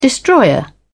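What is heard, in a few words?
"destroyer", British English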